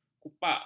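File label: "kupak", Malay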